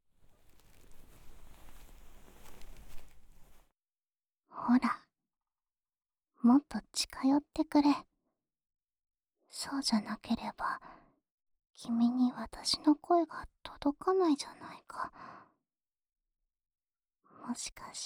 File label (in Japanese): 囁き声しか話せないなんて、変かな？（自己紹介）, 全編囁き; 私立小戸入高校ASMR部活動記録; 囁きの妖精系JK愛莉鈴